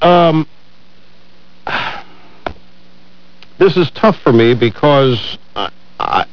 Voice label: tough